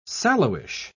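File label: "sallowish", British English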